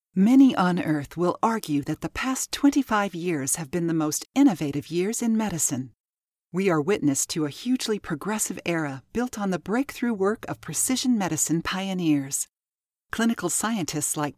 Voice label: English Voice Over